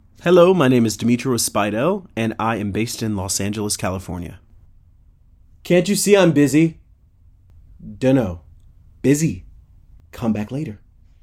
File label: voiceover